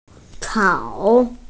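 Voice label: "cao3", Mandarin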